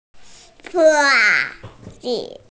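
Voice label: "socks", hearing impaired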